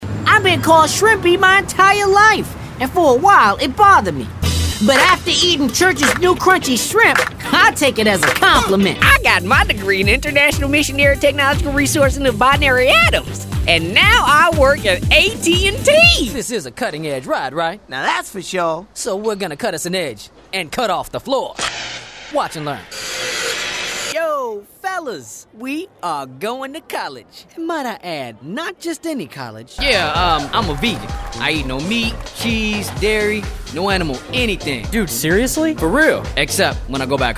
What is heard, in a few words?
character